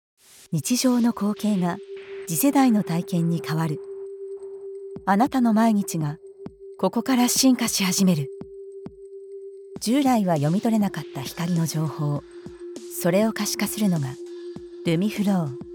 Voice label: japanese explainer videos; professionally trained female Japanese voiceover artist based in Japan